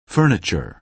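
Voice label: "furniture", American English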